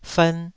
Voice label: "fern2", Taiwanese